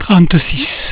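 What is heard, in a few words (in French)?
"trente-six", French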